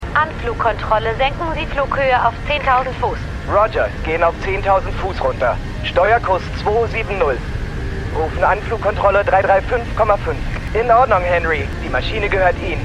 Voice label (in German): Anflugkontrolle Pilot, Stimmproben; Madam Secretary